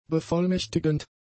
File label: "bevollmächtigend", German; adjectives